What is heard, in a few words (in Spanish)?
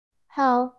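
Voice Acting es F, Spanish, female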